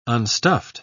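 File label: "unstuffed", British English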